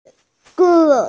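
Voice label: "shi2wu4", Mandarin Chinese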